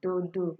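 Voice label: "dodo", Nigerian Pidgin, female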